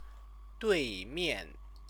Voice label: "duimian", Chinese